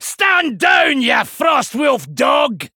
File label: Male Dwarf Start